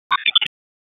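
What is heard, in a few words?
Raleigh Police Northeast District Tactical; wake p25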